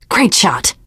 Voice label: nice shot, teen girl voice; source engine; left 4 dead 2; survivor